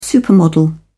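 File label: "supermodel", English, British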